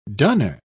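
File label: "dunner", British English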